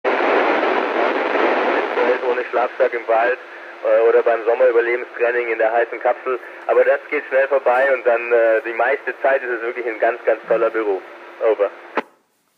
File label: ISS audio